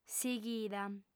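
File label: "sighida", female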